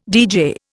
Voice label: "deejay", Indian